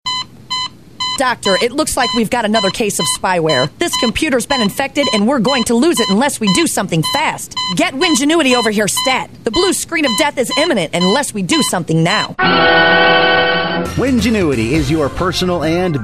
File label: Radio Ad; Wingenuity